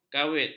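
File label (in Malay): "kaveat", Malay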